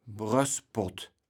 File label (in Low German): "Brößpott", kaevels platt